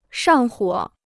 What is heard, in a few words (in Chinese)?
"上火", Chinese